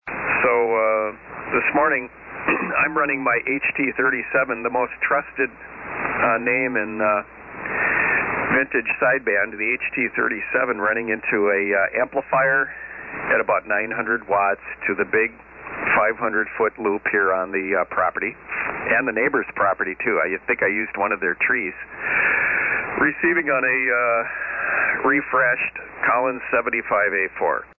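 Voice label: Rig Hallicrafters HT-37 Collins 75A-4 AL-80 Amp and Loop Antenna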